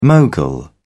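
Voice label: "mogul", British English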